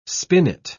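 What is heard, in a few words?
"spinet", English word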